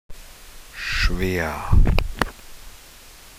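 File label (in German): "schwer", German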